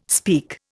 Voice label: "speak", Indian

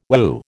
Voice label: "whew", American

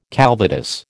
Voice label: "calvados", American

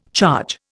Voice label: "charge", Indian

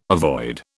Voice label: "avoid", British

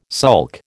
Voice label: "sulk", American

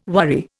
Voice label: "worry", Indian

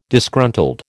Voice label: "Disgruntled", American

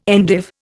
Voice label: "endive", Indian

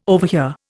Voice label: "overhear", Indian

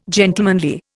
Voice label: "gentlemanly", Indian

